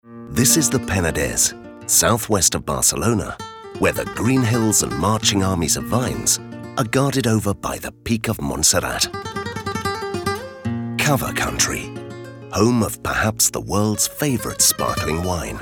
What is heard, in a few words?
male british english drink comercial